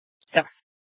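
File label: police dispatch, Scottsdale PD; Simulcast H- Scottsdale; Nth Dispatch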